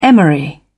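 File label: "emery", British English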